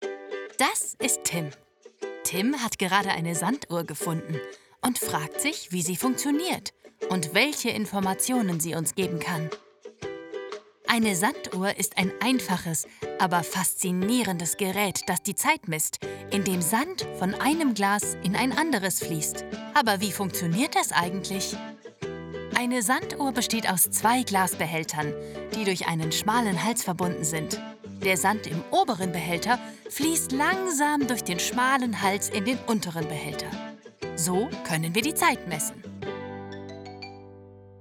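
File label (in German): Erklärfilm Kinder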